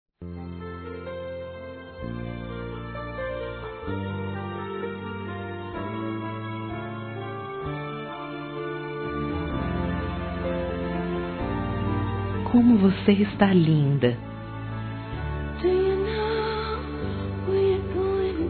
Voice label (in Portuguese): PARA QUEM ESPERA UM BEBE F; fem